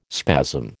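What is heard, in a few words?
"spasm", American English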